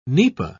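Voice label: "nipa", British English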